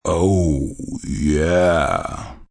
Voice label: Oh Yeah, Sexy, fun, male, deep voice; VoiceBox